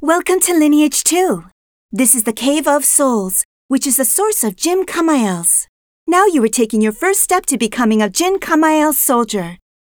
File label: tutorial voice; lineage2